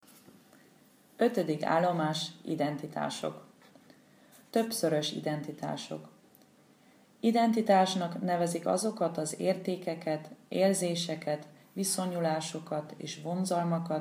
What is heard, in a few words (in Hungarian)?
magyar